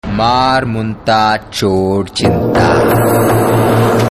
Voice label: Dialogue Ringtone